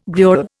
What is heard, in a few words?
"gruel", Indian